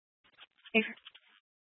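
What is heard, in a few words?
Fire Dispatch, Scanner audio recording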